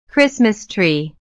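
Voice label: "Christmas tree", English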